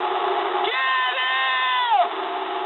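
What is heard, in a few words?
English attack position mood high; War Thunder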